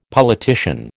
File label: "politician", American